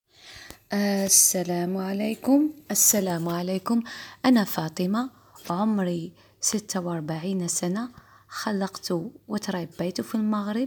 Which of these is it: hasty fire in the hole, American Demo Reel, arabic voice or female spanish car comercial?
arabic voice